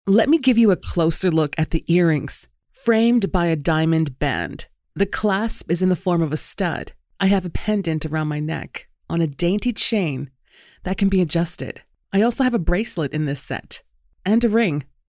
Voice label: american